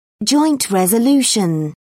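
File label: "joint resolution", British English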